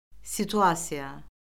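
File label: "situasiya", Azerbaijani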